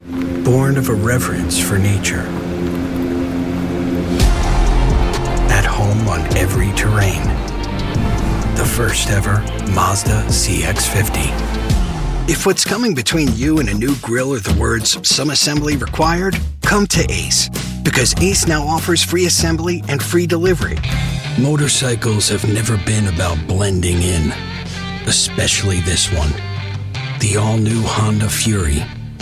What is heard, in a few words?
Commercial